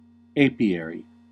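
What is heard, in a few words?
"apiary", American English; pronunciations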